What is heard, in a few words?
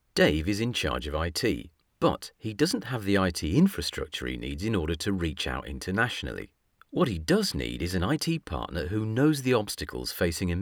Dry ad IT voice